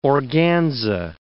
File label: "organza", English word